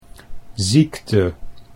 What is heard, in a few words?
"ziekte", Dutch